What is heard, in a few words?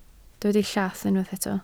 "llath", Welsh